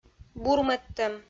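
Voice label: "бурмӧдтӧм", Komi, female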